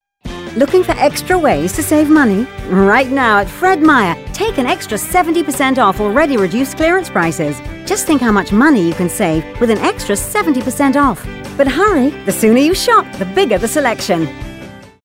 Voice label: high energy